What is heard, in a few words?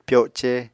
"pyoce", Apatani